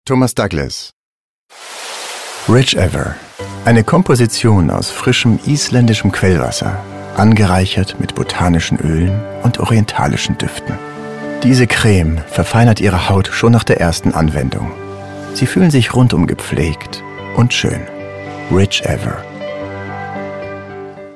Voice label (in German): Werbung; Rich Ever Feuchtigkeitscre me